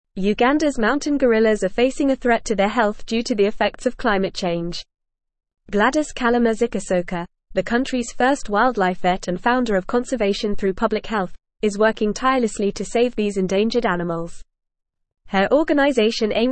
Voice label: English Advanced FAST Reading; English Newsroom; Ugandas Wildlife Vet Saves Mountain Gorillas from Climate

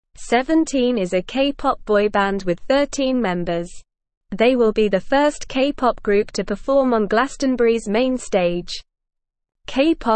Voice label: English NORMAL Reading

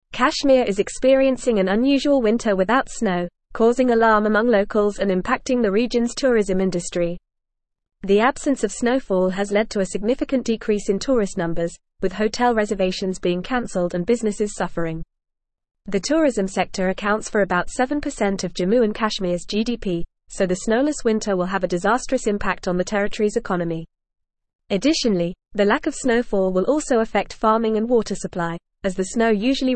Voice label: Advanced FAST Reading, English; English Newsroom; Snowless Winter in Kashmir Causes Economic and Environmental

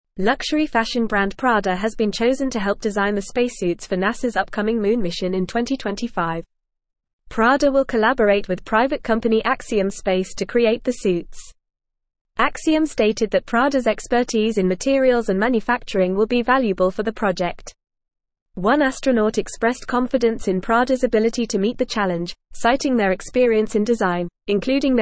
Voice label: English Newsroom Advanced FAST Reading; Prada and Axiom Space Collaborate on NASA Moon Mission

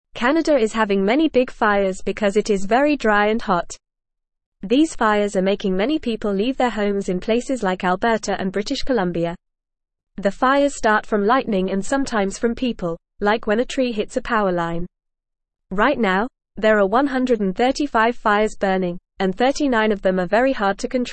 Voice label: English FAST Reading; Canadas Big Fires People Leaving Their Homes

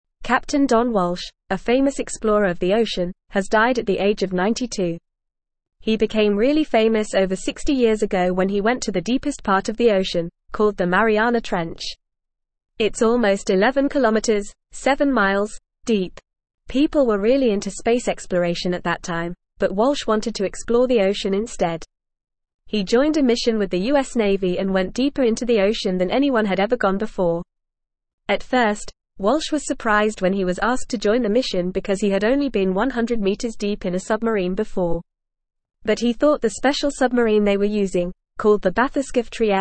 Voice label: English Upper Intermediate FAST Reading

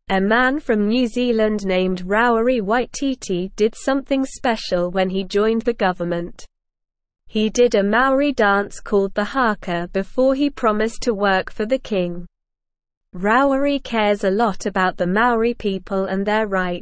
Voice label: English Newsroom Lower Intermediate SLOW Reading; Man from New Zealand does special dance for Maori rights

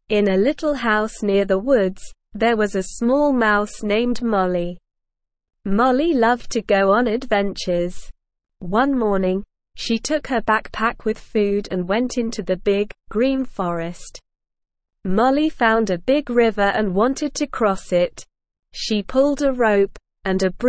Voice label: ESL Short Stories for Kids Beginner SLOW Reading; The Adventures of Molly Mouse

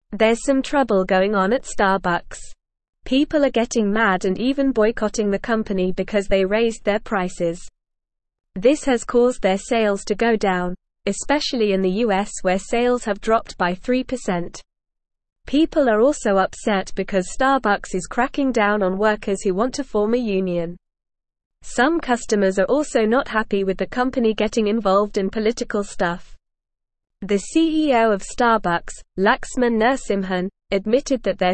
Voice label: English Upper Intermediate NORMAL Reading

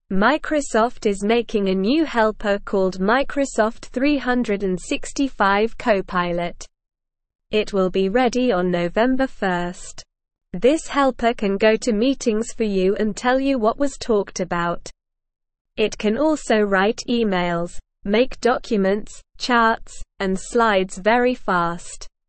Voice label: English Beginner SLOW Reading; Microsofts New Helper Microsoft 365 Copilot

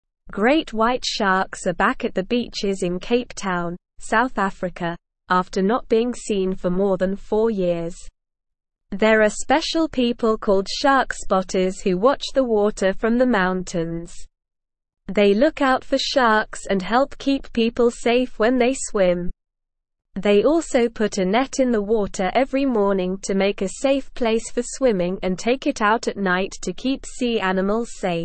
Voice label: English Lower Intermediate SLOW Reading; Sharks are back at Cape Town beaches; English Newsroom

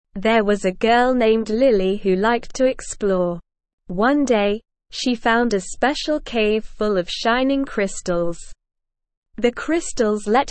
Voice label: ESL Short Stories for Kids Beginner SLOW Reading; The Crystal Cave

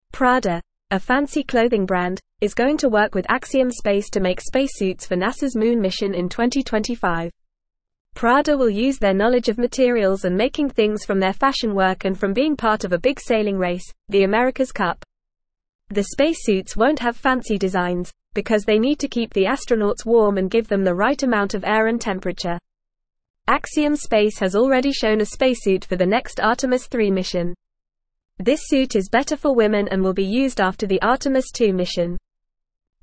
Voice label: Lower Intermediate FAST Reading, English; English Newsroom; Prada Helps Make Space Suits for Moon Trip

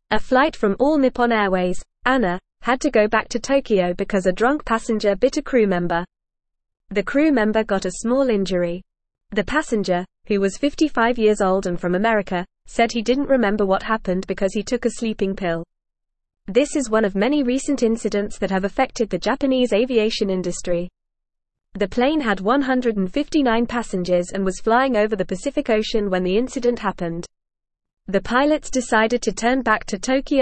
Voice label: English FAST Reading; English Newsroom; US bound ANA flight forced to return after drunk pa

